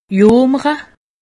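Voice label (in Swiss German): "jomere", Alsatian; medecine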